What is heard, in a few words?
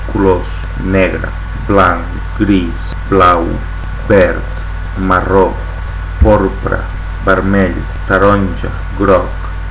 catalan; colors